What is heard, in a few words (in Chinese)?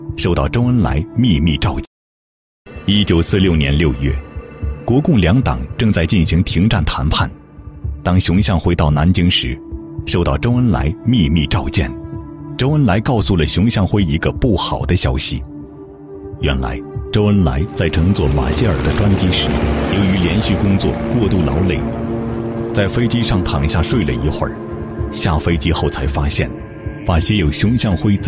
男,军事记录片; 央视